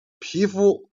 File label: "jian", Chinese